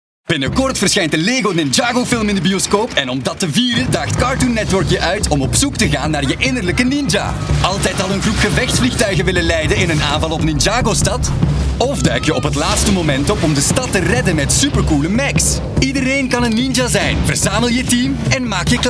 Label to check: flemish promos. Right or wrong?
Right